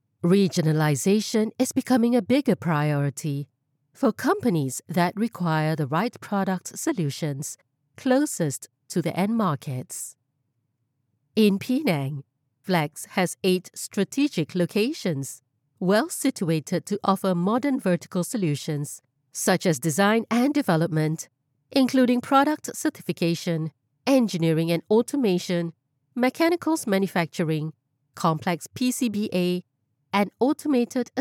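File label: Malay, female; voice over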